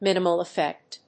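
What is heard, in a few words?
"minimal effect", English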